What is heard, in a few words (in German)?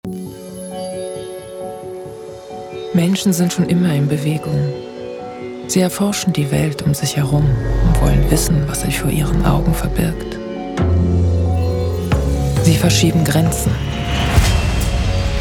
imagefilm de; continental